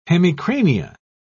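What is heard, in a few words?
"hemicrania", British English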